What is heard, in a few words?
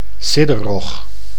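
"sidderrog", Dutch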